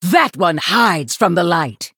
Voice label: Female Human ERROR STEALTH